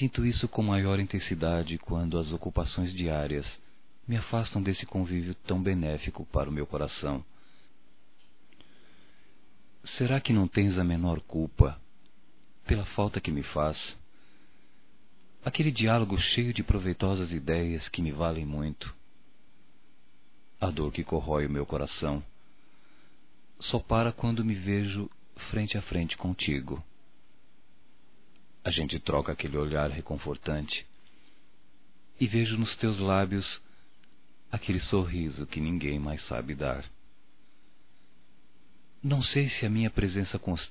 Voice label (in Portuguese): SAUDADES ROMÂNTICA, Telemensagens, masc